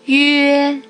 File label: "yue", compound finals; lesson 3